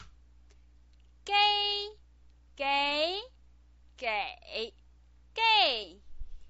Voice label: "gei", Chinese pinyin